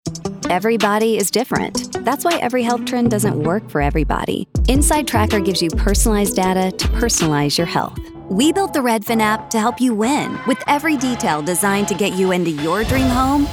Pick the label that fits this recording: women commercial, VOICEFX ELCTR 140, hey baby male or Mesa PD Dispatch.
women commercial